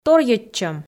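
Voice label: "торйӧдчӧм", Komi, female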